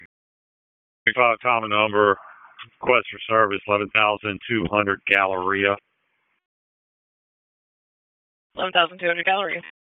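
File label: Fire Department HQ Dispatch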